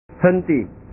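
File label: "henti", Indonesian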